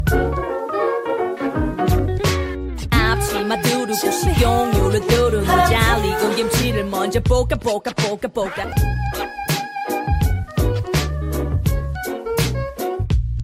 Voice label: Rap

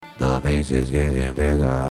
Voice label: sweet voice sms tone; ringtones

